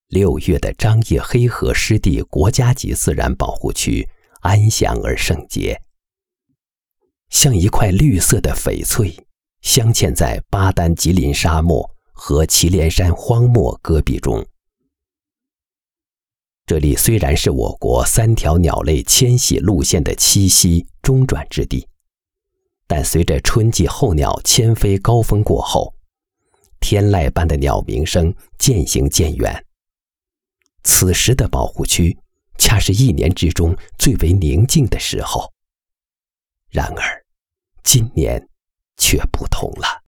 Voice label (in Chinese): 男; 静静的湿地